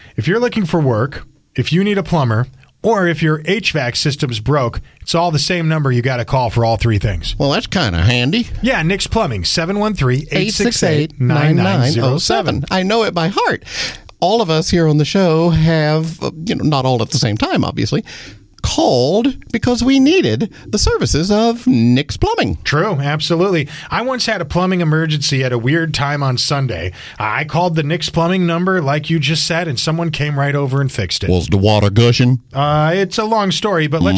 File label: RADIO AD; nicks plumbing radio ads